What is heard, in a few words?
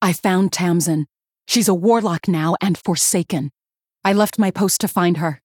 Female Human